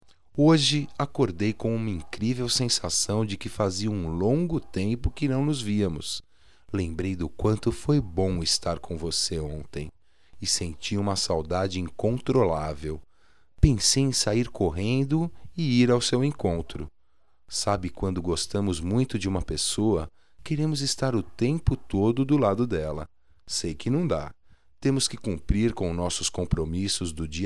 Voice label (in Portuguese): Ja estou com saudades M; Telemensagens 2017; Só Voz